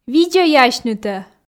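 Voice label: видзӧй асьнытӧ, Komi, female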